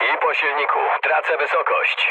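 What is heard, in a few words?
damaged engine, mood low, Polish speech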